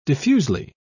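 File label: "diffusely", en, British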